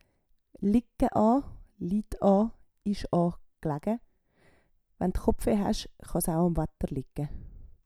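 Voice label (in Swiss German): "ligge a", Swiss German; Wortschatz Kapitel 8; Kapitel 8 Zusatzwortschatz 5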